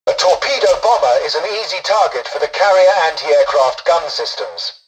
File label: tutorial dialogue, english; War Thunder